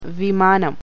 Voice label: malayalam